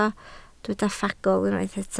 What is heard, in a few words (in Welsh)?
"ffagl", Welsh